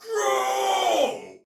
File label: Attack Normal, Soldier Male; Dialog; Barks; Battle; Sinecure